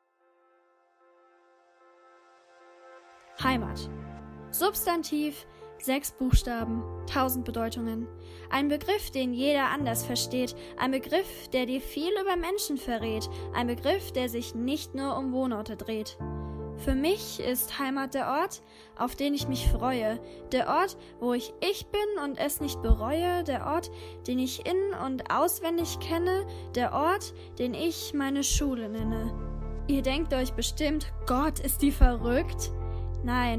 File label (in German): Poetry Slam; Heimat